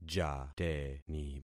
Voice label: "jateni", concat syllables; Affixing experiment

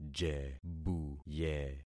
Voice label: "jebuye", concat syllables; Affixing experiment

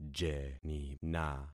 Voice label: "jenina", concat syllables; Affixing experiment

